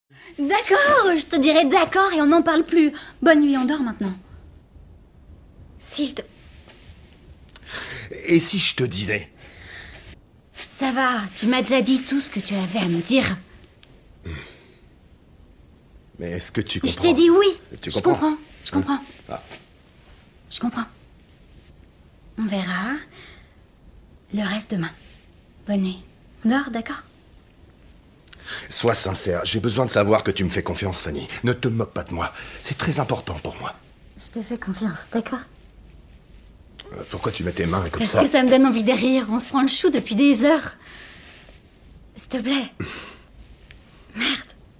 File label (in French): doublage, voix off; Enregistrements dans la cabine speak